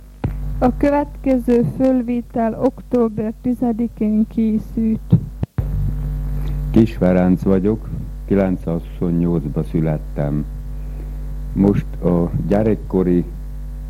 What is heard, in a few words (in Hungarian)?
nyelvjaras gyujtesek